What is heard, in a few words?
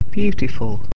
"beautiful", English